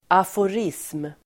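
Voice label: "aforism", Swedish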